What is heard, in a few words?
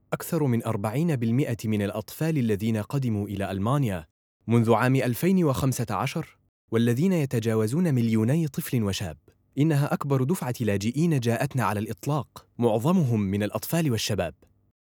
Traditional Arabic Documentary